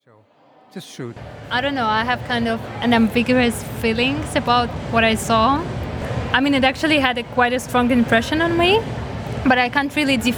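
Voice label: female English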